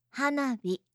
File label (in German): "hanabi", japanische maedchennamen